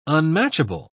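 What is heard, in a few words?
"unmatchable", English, British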